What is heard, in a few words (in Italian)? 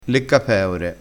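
"leccapeore", vocabolario dialettale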